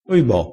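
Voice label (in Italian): "oibo", dialettale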